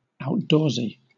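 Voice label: "outdoorsy", British English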